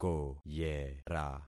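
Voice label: "koyera", concatenated syllables; Affixing experiment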